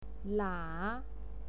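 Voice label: "lia", pinyin, third tone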